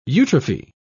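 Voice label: "eutrophy", British English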